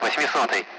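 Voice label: Speech, Russian, 8th; Allied Flight; DCS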